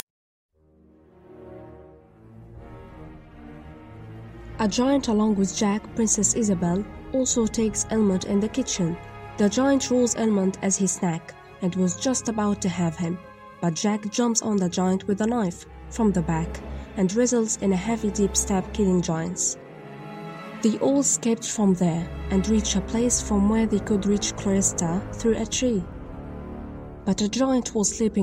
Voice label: Narration English